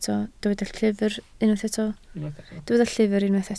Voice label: "llyfr", Welsh